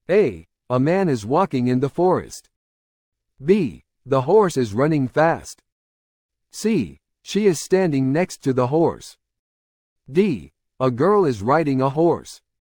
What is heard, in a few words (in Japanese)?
ノーマル